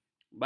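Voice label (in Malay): "baldi", Malay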